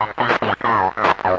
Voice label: radio voice, Distorted Radio Voice; VOCAL